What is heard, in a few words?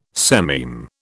"sememe", British